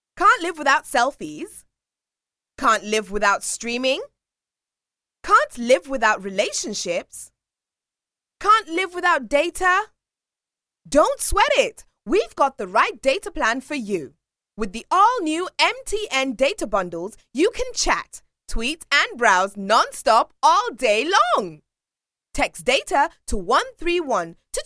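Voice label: English UK, General; GoLocalise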